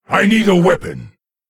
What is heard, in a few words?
Male Lich ERROR NEED WEAPON